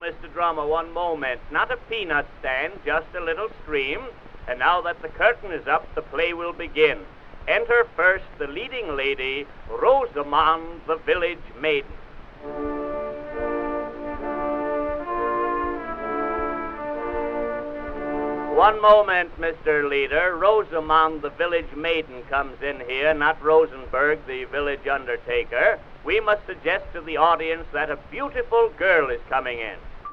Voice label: monologue, vaudevil; drama; rehearsing the orchestra; vaudeville special